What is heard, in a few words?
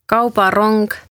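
"Kaubarong" Estonian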